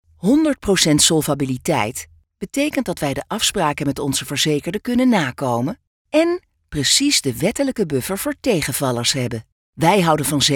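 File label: Dutch neutral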